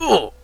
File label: Hurt; OpenClonk